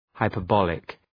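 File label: "hyperbolic", English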